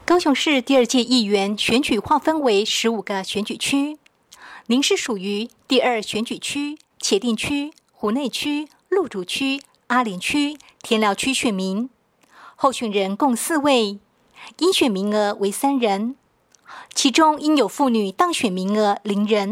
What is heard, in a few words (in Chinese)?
國語; 高雄市; 高雄市市長議員有聲選舉公報; 第二選區